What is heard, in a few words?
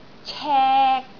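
"cek3", Cantonese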